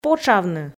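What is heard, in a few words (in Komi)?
"потшавны" Komi, female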